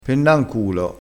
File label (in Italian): "pennanculo", crespinese